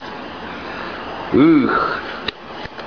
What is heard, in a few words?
"uuh", Tobian; neck